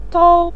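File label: "tou" neutral tone, Mandarin Chinese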